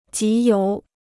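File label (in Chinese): "集邮" Chinese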